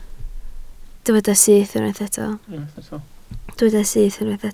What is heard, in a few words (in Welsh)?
"syth", Welsh